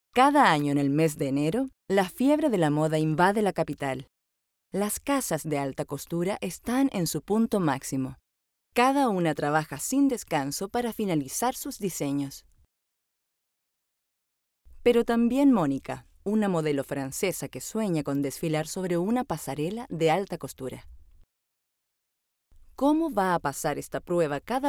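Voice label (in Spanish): latam female narration; voice demos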